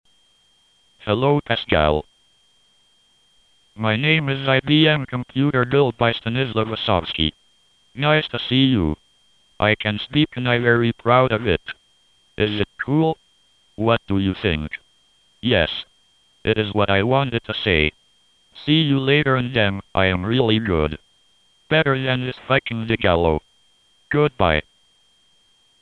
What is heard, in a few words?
text2speech